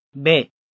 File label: Gujarati